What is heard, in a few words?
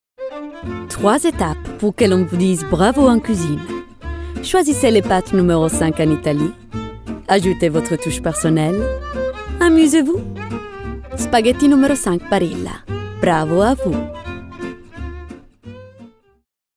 Commercial French